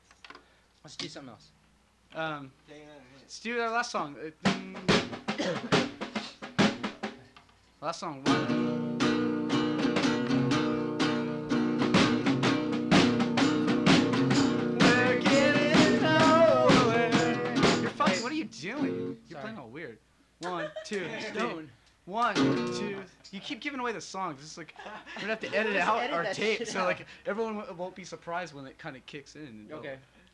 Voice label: Banter; Background Actors